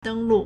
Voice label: "deng1 lu4", Chinese